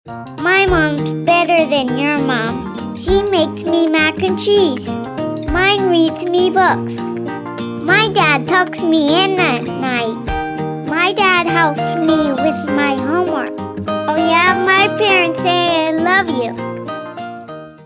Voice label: Kid Next Door Attitude